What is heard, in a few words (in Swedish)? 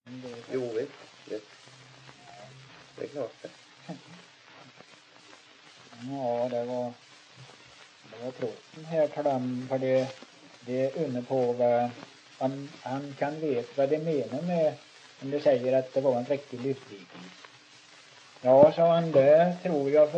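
Sunne Värmland, dialekter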